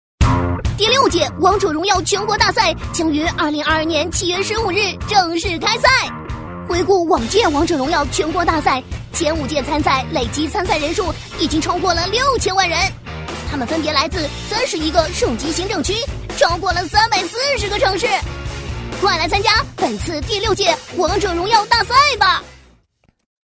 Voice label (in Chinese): 女 活动广告 动感活力男童声; 可爱; 王者荣耀大赛